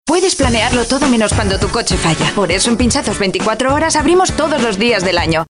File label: female spanish car comercial; ideasonora